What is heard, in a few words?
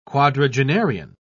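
"quadragenarian", English, British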